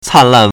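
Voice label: "can lan", Chinese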